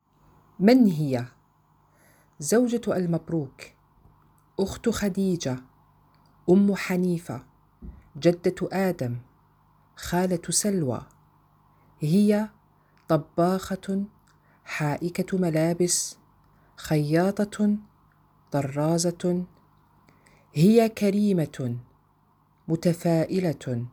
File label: Arabic; Who is she